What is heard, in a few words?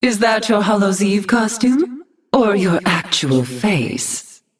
Female Night Elf HAPPY HALLOWEEN